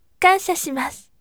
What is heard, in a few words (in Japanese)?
voice kansya shimasu yasashime